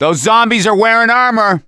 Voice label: see armored, voice; gambler